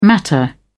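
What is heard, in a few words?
"matter", British English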